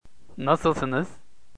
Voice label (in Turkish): "nasilsiniz", turkish, greetings